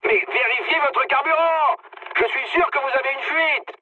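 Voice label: French tank damaged mood high; War Thunder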